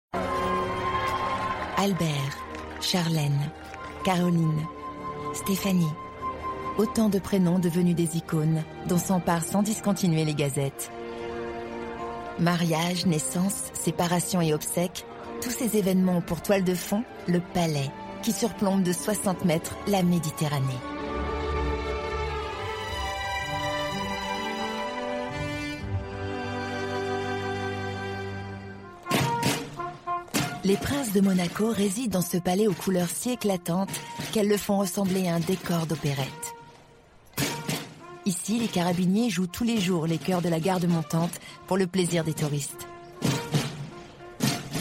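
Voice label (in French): Doc intro, comédienne voix off; France 5; Les marches du Palais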